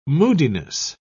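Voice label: "moodiness", British English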